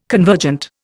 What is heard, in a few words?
"convergent", Indian